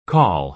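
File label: "call", American English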